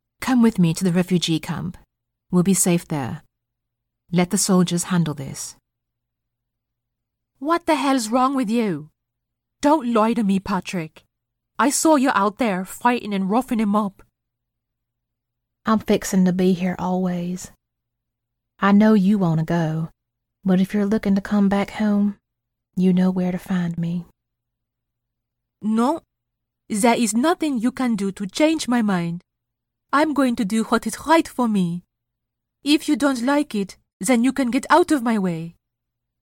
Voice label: Character Accents